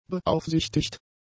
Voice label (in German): "beaufsichtigt", German; adjectives